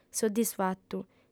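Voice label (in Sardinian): "soddisfatu", female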